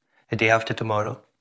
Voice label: Voice Acting, es